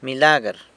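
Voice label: "milagr", miracle, San Lucas Quiaviní Zapotec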